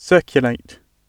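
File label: "circulate", British English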